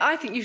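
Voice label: ai thiiQ you, Standard Southern British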